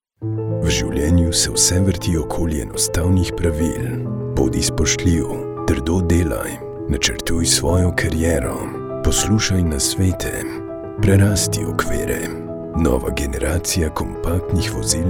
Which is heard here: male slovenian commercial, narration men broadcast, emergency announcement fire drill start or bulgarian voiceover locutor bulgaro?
male slovenian commercial